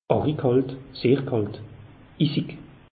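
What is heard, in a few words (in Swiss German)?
"arigkalt", Alsatian; meteo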